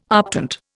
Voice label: "optant", Indian

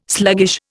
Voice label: "sluggish", Indian

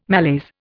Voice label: "malaise", Indian

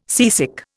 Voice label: "seasick", Indian

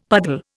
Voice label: "puddle", Indian; Dictionary

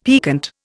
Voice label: "piquant", Indian; Dictionary

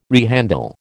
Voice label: "rehandle", American

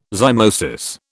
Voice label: "zymosis", British

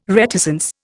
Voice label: "reticence", Indian

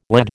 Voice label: "lad", American

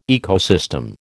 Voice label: "ecosystem", American

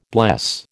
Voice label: "bless", American

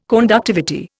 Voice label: "conductivity", Indian

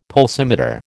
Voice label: "pulsimeter", American

